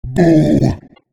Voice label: idle, giant zombie soldier; slender